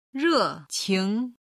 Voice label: "re4qing2", chinese